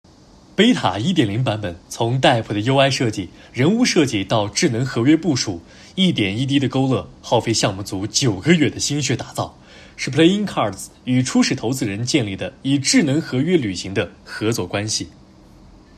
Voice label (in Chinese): 中英文宣传配音